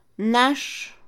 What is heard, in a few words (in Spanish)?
Mixe, Mujer; suelo; Casa; INALI